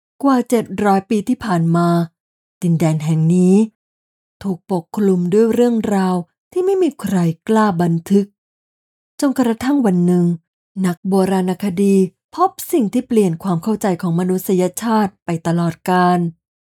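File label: Narration History, Female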